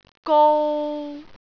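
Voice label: "gou3", Cantonese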